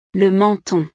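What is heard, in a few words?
"le menton", French; partes del cuerpo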